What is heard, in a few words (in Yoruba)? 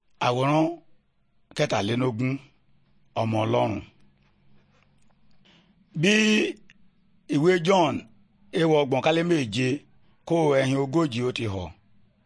good news yoruba, bible